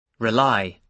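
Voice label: "rely", British English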